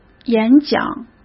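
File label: "演讲", Mandarin Chinese; hsk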